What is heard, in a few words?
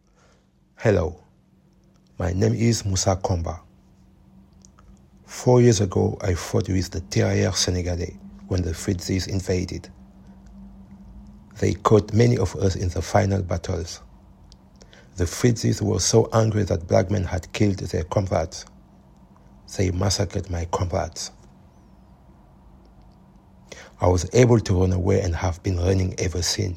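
French African, Accented English; GoLocalise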